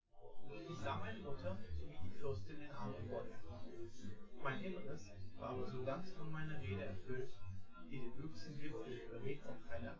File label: male synth conv 1m; SASSEC07